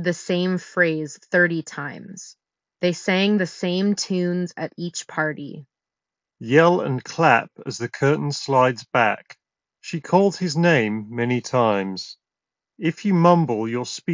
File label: mic ideal; sony wf-c510